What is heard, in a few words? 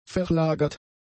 "verlagert", German; adjectives